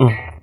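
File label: "uh", male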